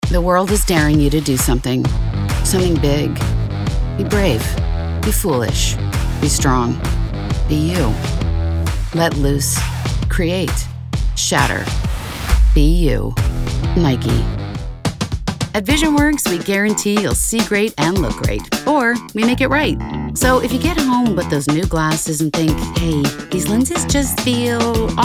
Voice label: Commercial

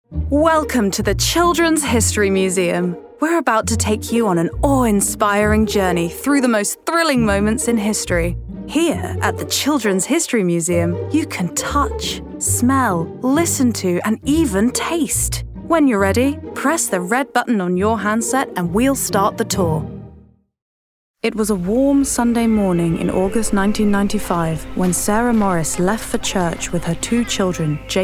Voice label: Narration; 90s

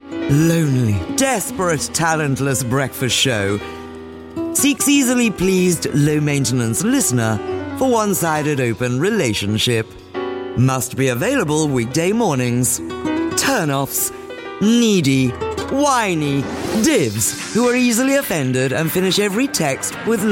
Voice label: Comedy

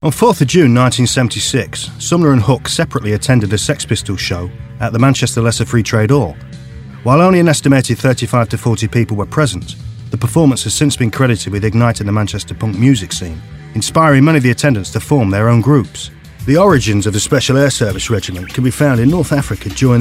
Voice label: Narration

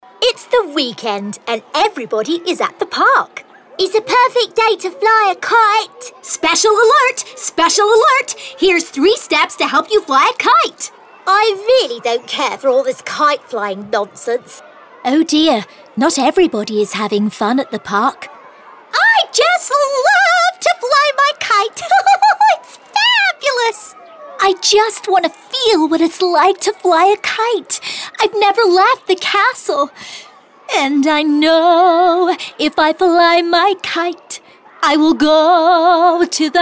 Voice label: Animation; Showreel

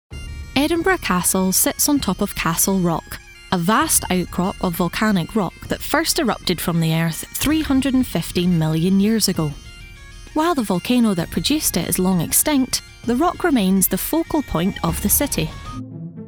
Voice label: Narration

